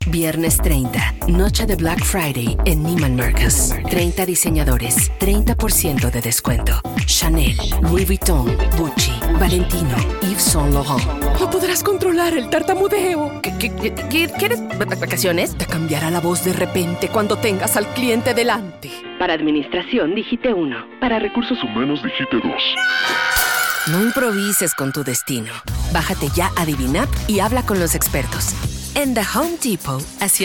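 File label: female, Latin American Spanish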